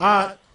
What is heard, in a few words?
"all right", Voice